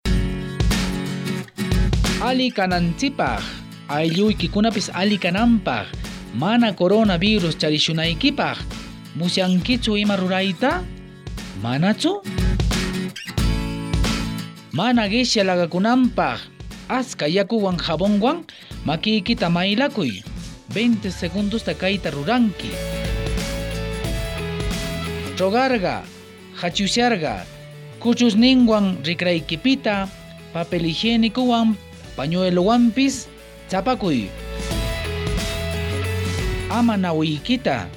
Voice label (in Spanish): quechua yaru pasco, spot de radio; recomendaciones generales; medidas de prevencion